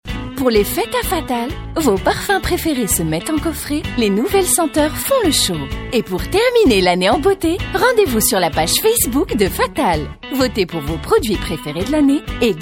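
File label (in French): Tunisian French, female, commercial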